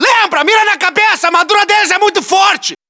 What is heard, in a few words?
hostile burst, battlechatter; cod modern warfare 2